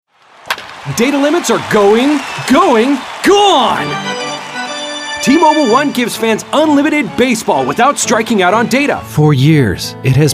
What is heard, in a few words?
Commercial